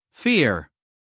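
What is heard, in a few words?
"fear", American English, male; everyone-can-use-english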